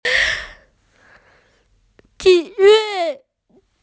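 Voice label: "zhi hui", Chinese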